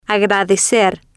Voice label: "agradecer", Spanish

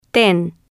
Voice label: "ten", Spanish

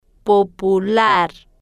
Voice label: "po-pu-lar", Spanish

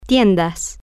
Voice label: "tien-das", Spanish

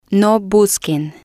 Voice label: "no busquen", Spanish

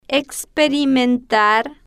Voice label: "experimentar", Spanish

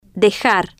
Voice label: "dejar", Spanish

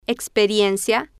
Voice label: "experiencia", Spanish

